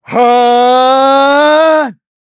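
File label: Vox Casting, English; kingsraid; voices heroes en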